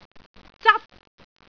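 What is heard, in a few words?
"zat1", Cantonese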